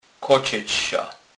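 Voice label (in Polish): "kocec", Kurpie dialect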